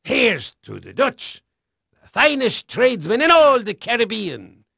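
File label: Dutch male, voice; Pirates Of The Caribbean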